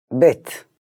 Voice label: "Bet", Hebrew; hebrew introduction

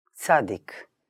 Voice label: "Tzahdeek", Hebrew; hebrew introduction

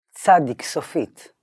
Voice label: Tzadeek sofeet, Hebrew; hebrew introduction

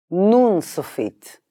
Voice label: "Noon sofeet", Hebrew; hebrew introduction

